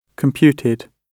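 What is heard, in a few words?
"computed", British English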